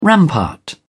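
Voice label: "rampart", British English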